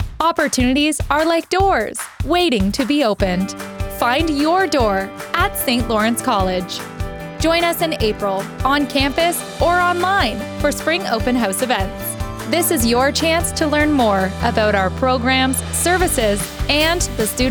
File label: open house spring radio